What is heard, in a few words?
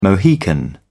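"mohican", British English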